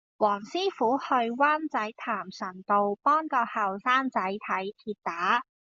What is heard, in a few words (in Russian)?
Гонконгский